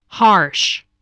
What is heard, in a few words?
"harsh", English, spelling